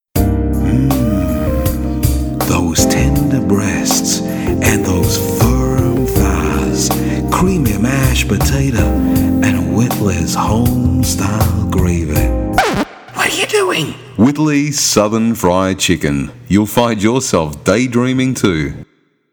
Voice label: Commercials Advertising - English - Australian - Male